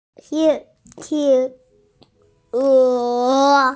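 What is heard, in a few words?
"tian1e2", Mandarin Chinese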